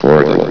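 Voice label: "portal", male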